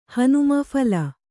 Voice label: "ಹನುಮನ ಫಲ", Kannada

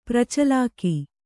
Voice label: "ಪ್ರಚಳಾಕ", Kannada

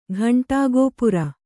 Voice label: "ಘಂಟಾಗೋಪುರ", Kannada

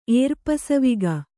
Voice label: "ಏರ್ಪಸವಿಗ", Kannada

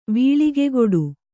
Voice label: "ವೀಳಿಗೆಗೊಡು", kannada

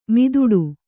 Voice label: "ಮುದುಡು", Kannada

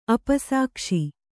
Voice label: "ಅಪಸಾಕ್ಷಿ", Kannada; apasākṣi; noun

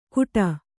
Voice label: "ಘೂಟ", kannada

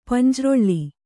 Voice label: "ಪಂಜ್ರೊಳ್ಳಿ", kannada

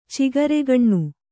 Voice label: "ಚಿಗರೆಗಣ್ಣು", Kannada

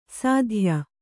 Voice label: "ಸಾಧ್ಯ", Kannada; sādhya; possible

